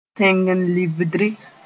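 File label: "tẽng-n-libdri", Mooré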